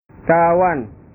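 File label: "kawan", Indonesian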